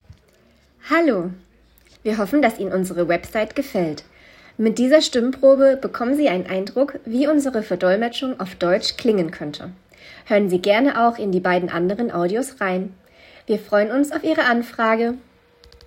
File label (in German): Aufnahme Deutsch